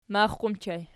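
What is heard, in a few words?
"max" ergative plural, Archi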